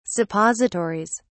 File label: "suppositories", en uk